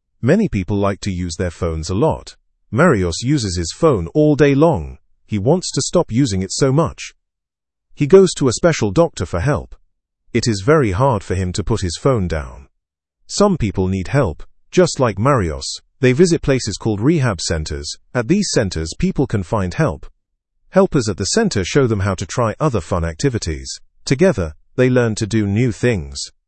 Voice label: learn english through news beginner fast; phone addiction looks like a real problem